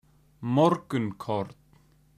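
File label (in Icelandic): "morgunkorn", Icelandic; matarhefti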